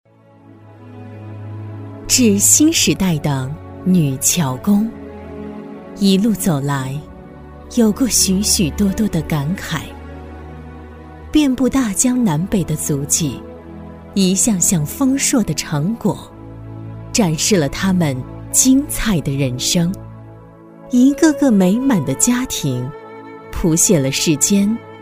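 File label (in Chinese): 女-诗朗诵【抒情温暖】; 致敬女桥工; 深情感人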